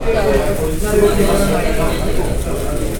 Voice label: anger open, 居酒屋, Anger (Open mouth); 怒り(開口); 感情プロファイルマッチング環境音; ATR オープンハウス2025; ATR-Promotions